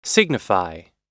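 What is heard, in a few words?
"signify", American English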